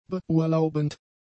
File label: "beurlaubend", German; adjectives